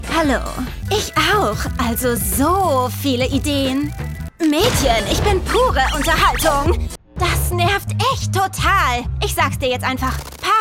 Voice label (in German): Cartoon flippig; LOFT VOICES